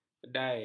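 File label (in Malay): "kedai", Malay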